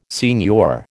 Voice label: "signor", American